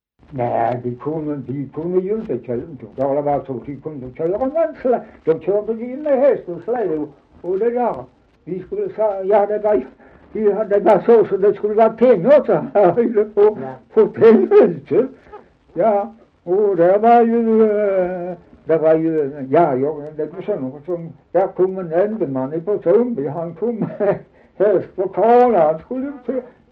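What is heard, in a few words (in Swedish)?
Dalby Skåne, dialekter; Folkminnen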